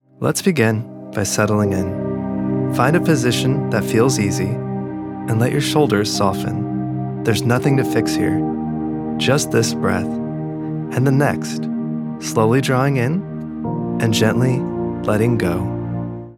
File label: wellness guided meditation, calm unhurried